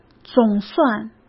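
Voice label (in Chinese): "总算", Mandarin Chinese; hsk; chinese-flashcards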